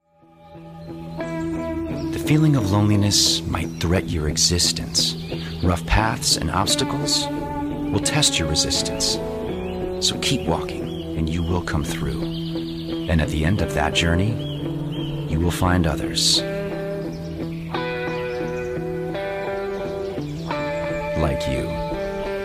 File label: English US